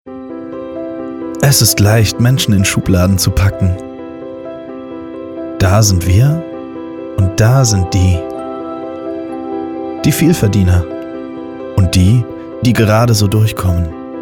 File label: Werbung, thoughtful, soft, calm, Commercial, German; Mehr Wir-Zeit